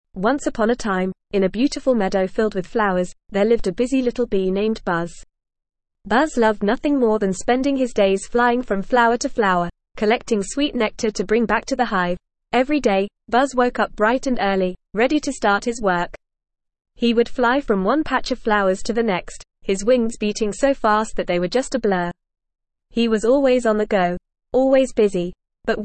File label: ESL Short Stories for Kids NORMAL reading; The Busy Bee